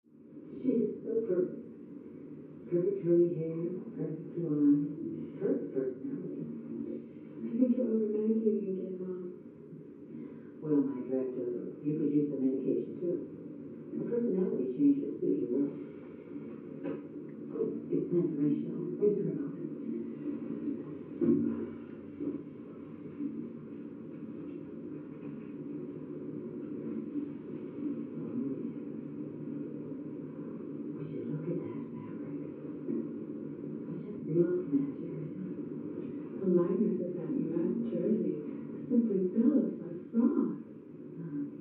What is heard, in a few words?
Television; Daytime Show With Dialog And Some Music. From Next Room.